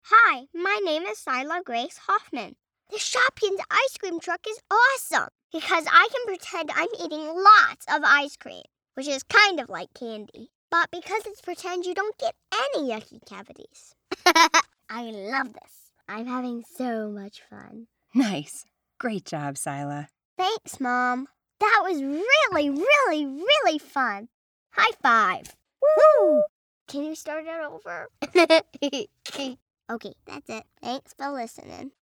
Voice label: Age 6 Commercial Sample

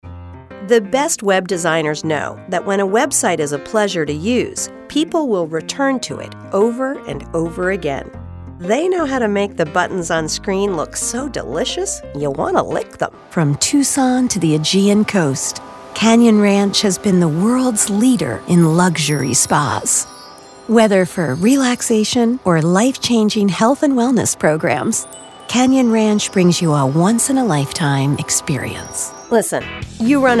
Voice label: Narration

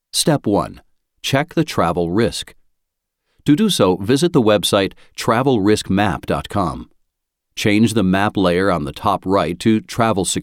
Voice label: American English eLearning General

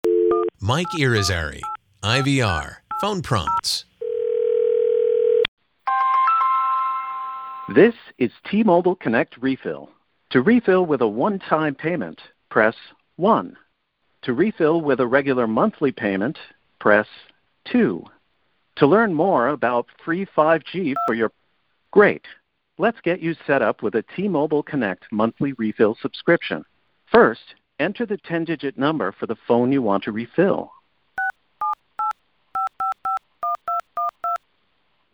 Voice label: IVR

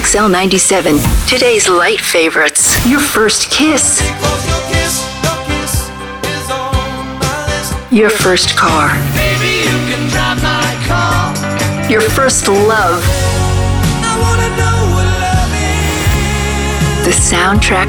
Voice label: Radio Imaging All Formats

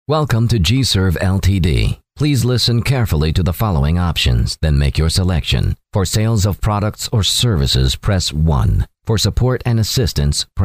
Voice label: voicemail, messaging, IVR

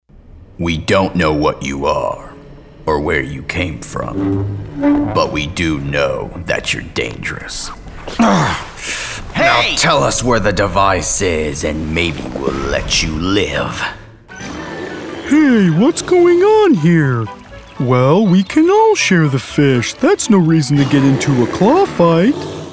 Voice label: Animation

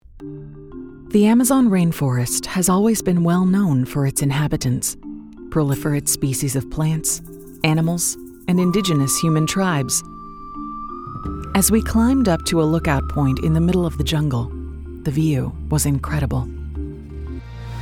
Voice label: Documentary Narration

